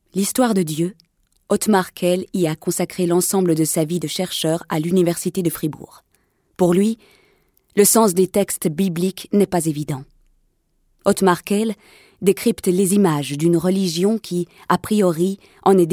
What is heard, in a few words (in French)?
Voix Documentaire